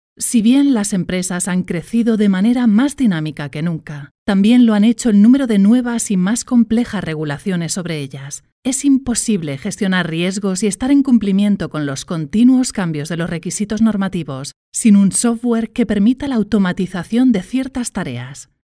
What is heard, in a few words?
corporate product promotion